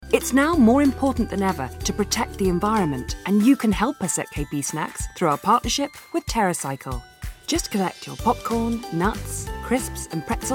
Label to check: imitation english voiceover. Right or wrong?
Wrong